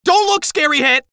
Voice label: scared; haunthat